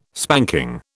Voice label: "spanking", British